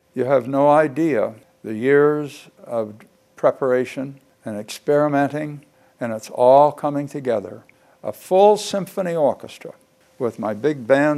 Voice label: radio